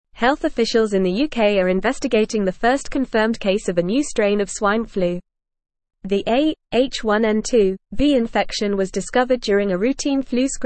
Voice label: English Newsroom Advanced FAST Reading; UK Detects First Case of New Swine Flu Strain